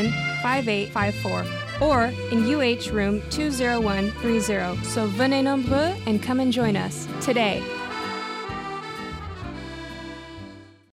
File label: French Promo Radio; Coyote Radio